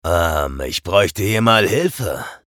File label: vo chatter negative; eisen; respawn